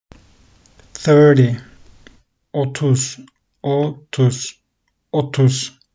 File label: thirty 30, turkish; the talking turkish phrasebook